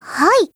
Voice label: AGREE, JP

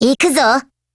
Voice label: ATTACK JP

